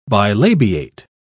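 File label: "bilabiate", British English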